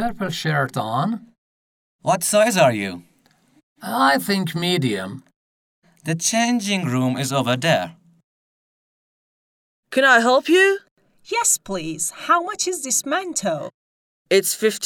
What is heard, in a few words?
conversation